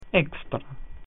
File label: "outside", Latin